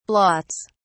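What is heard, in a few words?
"blots", British English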